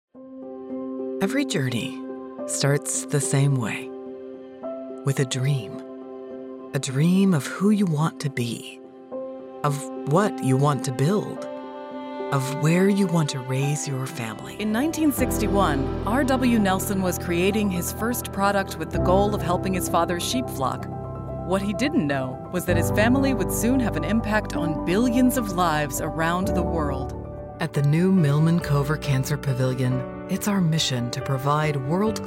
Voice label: Corporate, Female Voice Over